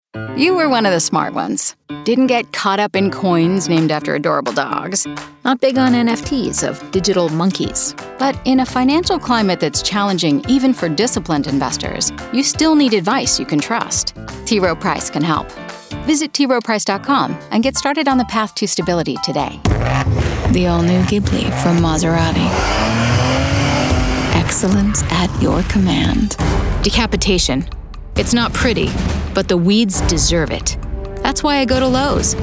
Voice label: Commercial; BOSSY FRIENDLINESS. YOUTHFUL RESONANCE